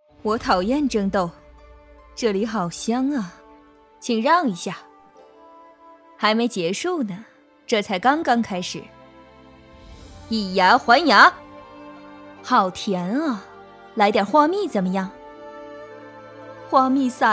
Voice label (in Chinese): 女 角色 御姐